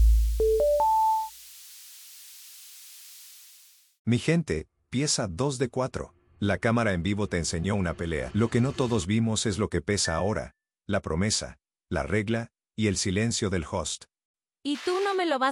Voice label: voiceover; planeta alofoke; opus